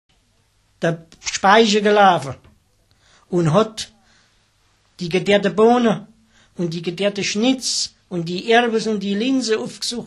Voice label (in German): Hessisch; Sprachbsp; Mitteldeutsch